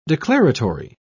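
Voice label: "declaratory", British English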